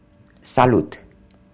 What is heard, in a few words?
romanian hello